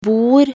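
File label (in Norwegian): "bor", Norwegian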